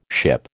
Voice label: "ship", American